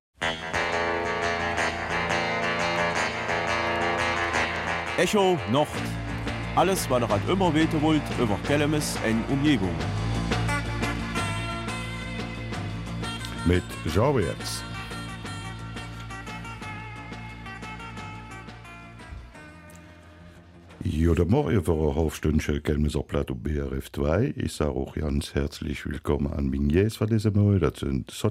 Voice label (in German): Kelmiser Mundart; Theaterstück Panik I Moresent